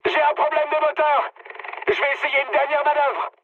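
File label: damaged engine, mood high, French; War Thunder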